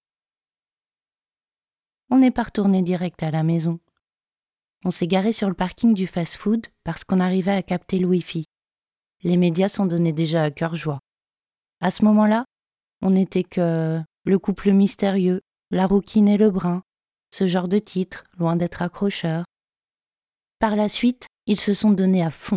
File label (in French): voix roman noir; lecture à voix haute